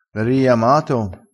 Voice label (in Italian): "riiama", crespinese, vocabolario dialettale